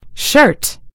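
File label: "shirt", British English; gcompris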